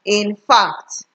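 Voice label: "in fact", Nigerian Pidgin, female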